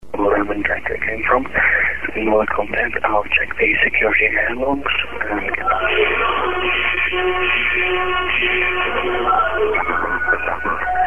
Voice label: strange call, phone, halloween